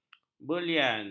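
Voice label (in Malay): "berlian", Malay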